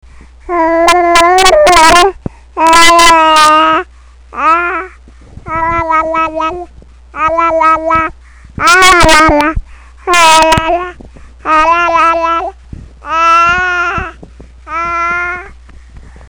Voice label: loud rant